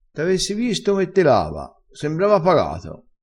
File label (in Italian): "tela", crespinese